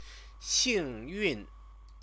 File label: "xingyun", Chinese